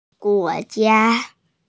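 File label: "guo2jia1", Mandarin Chinese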